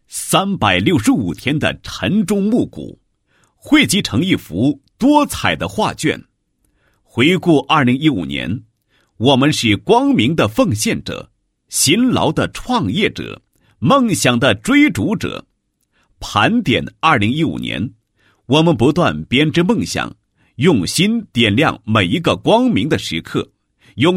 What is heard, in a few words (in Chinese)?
男-纪实; 清城供电局2015年工作纪录片